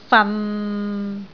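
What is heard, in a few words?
"fan6", Cantonese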